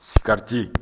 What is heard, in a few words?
"sigarɛti", Bambara